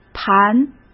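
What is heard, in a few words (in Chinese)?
"盘", Mandarin Chinese; hsk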